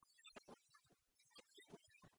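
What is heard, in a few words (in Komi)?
"арся луныд кӧч бӧж кузя", female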